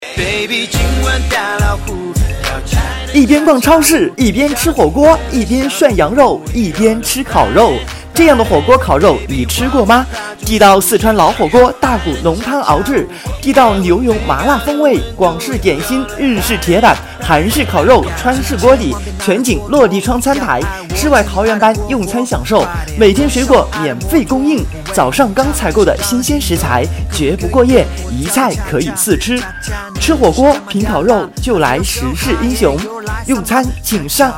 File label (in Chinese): 促销广告